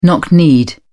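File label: "knock kneed", English, British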